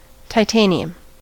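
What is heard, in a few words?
"titanium", US English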